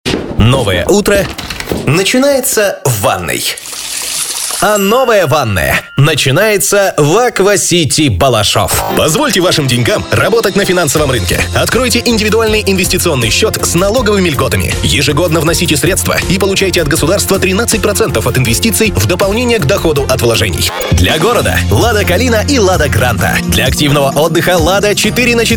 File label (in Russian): muzhskie golosa, diktory